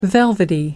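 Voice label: "velvety", British English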